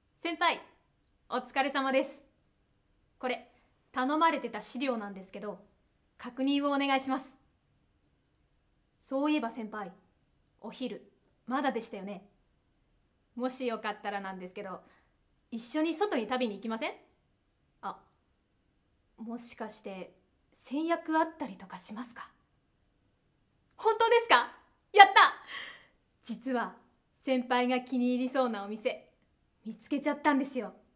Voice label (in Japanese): セリフ 20代前半